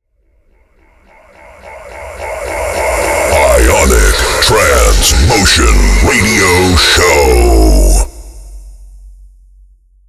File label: trance motion radio show; Clubs